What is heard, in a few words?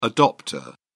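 "adopter", British English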